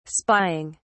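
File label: "spying", English, British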